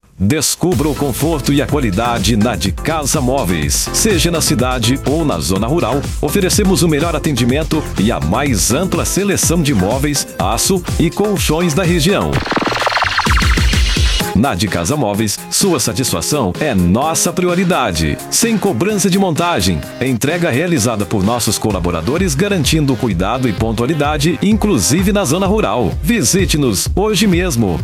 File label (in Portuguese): SPOT; D´CASA MOVEIS